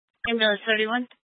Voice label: Fire Department Dispatch; Grand Junction